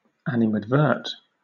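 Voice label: "animadvert", British English; pronunciations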